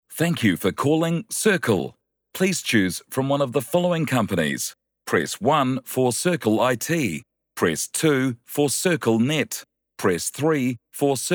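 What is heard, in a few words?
New Zealand Male Voice Talent Phone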